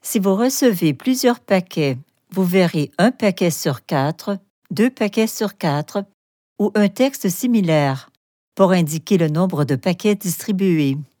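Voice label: French Canadian tutorial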